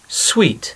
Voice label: "sweet", English